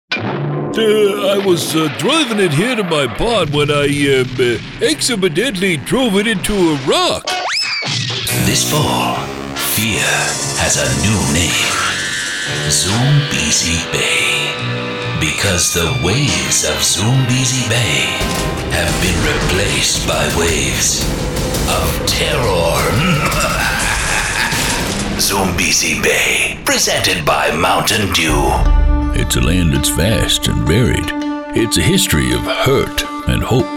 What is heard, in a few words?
CHARACTER